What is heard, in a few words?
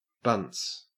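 "bunts", Australian English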